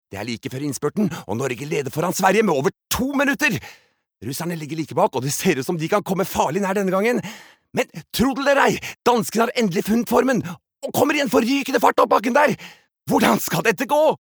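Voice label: Norwegian dub commentator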